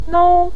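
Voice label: "nou", Mandarin Chinese neutral tone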